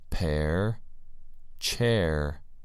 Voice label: "pair" "chair"